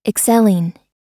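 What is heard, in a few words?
"excelling", US English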